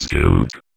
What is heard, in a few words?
Vocoder Words; Vengeance Samples